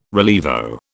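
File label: "rilievo", British English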